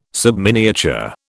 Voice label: "subminiature", British